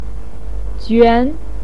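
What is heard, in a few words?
"juan", Mandarin Chinese, second tone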